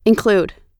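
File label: "include", American English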